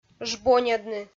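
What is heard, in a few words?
"жбоньӧдны", Komi, female; audio dict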